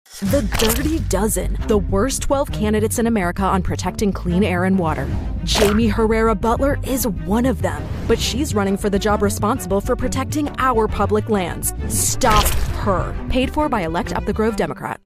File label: Attack Ad; political; voiceover; The Dirty Dozen